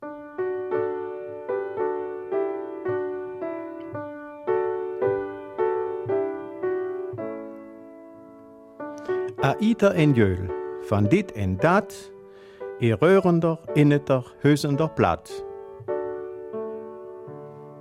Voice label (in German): Raerener Mundartsendung; Plattdeutsches aus Raeren, Eynatten oder Hauset; An jedem fünften Sonntag im Monat gibt es von 9 bis 10 Uhr auf BRF2 eine Stunde lang Plattdeutsches aus Raeren, Eynatten oder Hauset.